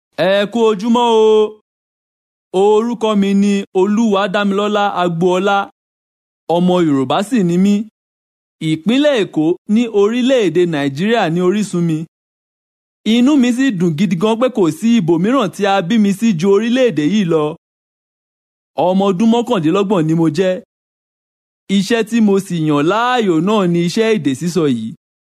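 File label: Yoruba, male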